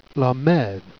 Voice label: "methe", Jèrriais

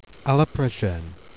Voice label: "prechaine", Jèrriais

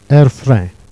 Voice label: "erfrain", Jèrriais

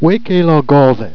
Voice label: "ouest qu'est la gazette", Jèrriais

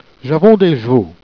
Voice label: "j'avons des j'vaux", Jèrriais

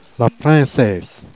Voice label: "princesse", Jèrriais

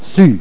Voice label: "sus", Jèrriais

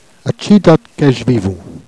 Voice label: "atchi d'ate que j'vivons", Jèrriais

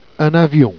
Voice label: "avion", jerriais

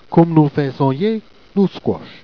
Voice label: "scouoche", Jèrriais

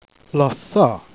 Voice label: "soeu", Jèrriais

